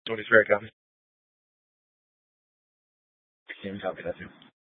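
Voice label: Police Department Dispatch, scanner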